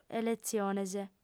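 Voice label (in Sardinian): "eletziones", female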